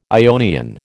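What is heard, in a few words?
"Ionian", American English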